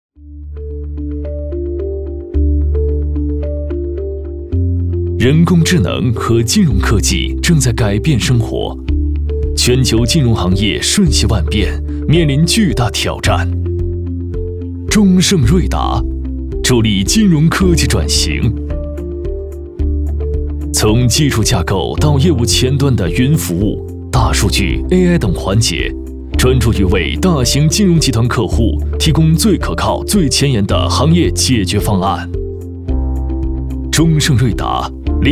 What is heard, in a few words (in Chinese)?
男 宣传片 科技感; 中盛金融宣传片